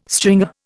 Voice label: "stringer", Indian